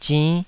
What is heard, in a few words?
"zinn5", Taiwanese syllable